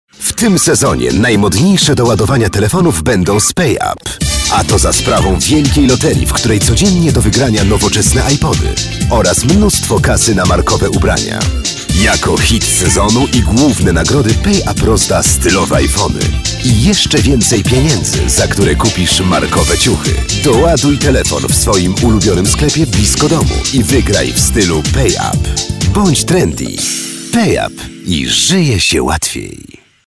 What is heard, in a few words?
Male VO Commercial